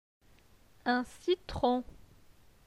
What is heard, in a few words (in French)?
"un citron", francais; phonetique; les consonnes